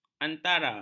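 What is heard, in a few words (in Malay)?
"antara", Malay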